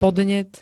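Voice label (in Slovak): "podnet", Slovak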